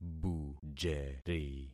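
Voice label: "bujeri", concatenated syllables; Affixing experiment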